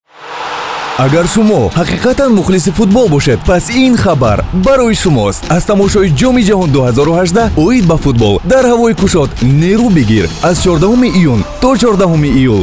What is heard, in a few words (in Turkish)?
Tacikce Erkek, seslendirme; erkek sesler